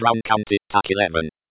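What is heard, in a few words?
BC Tac 11, Computer Voice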